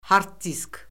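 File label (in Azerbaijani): "hard-disk", Azerbaijani; Orfoqrafiya Lüğəti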